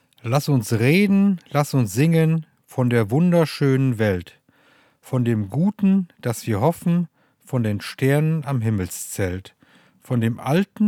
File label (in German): Hochdeutsch; Rhodepark; Plattdeutscher Weg; Nottuln